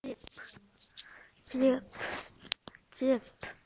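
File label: "zip", spoken word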